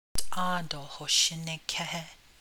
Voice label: navajo; Don't scold Don't yell